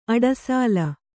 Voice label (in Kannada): "ಅಡಸಲ", Kannada